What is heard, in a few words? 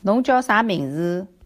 name shanghainese